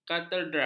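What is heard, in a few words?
"katedral", Malay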